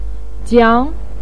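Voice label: "jiang" second tone, Mandarin Chinese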